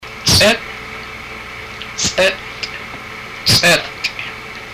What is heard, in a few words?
cheek, haida language; Ts'at